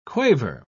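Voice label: "quaver", English word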